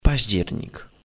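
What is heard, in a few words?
"October", Polish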